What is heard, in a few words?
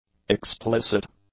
"explicit", english; adjectives